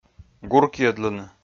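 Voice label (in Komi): "гуркйӧдлыны", Komi, male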